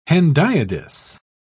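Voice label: "hendiadys", British English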